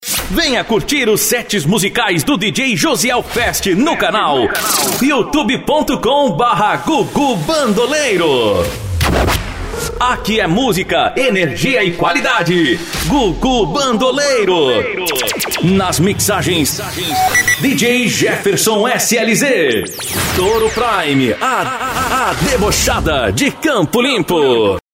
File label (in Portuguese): vinhetas